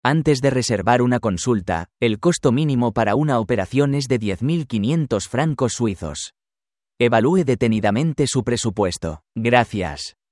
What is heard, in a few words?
Vocal pop up spanish; Trapianto di capelli in Italia : Dr Coen Gho - Hasci Italia; Hair Stem Cell Transplantation (HST)